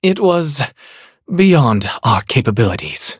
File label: defeat, en; cookierunwiki